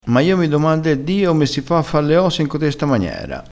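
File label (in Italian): "dio", dialettale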